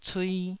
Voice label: "cui2", Taiwanese syllable

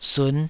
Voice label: "sun5", taiwanese Syllables

